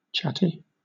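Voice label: "chatty", British English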